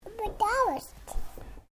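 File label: Male 2 year old Talk; Talking; Voice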